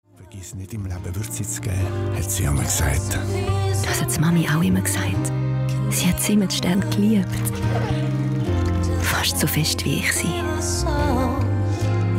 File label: Weihnachten, CH Deutsch, Commercial (Werbung), Swiss; voice; Coop Weihnachten 24